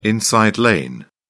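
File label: "inside lane", British English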